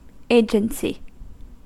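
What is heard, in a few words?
"agency", American English; pronunciations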